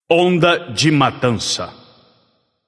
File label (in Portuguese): alert notify kill streak, vox caster portuguese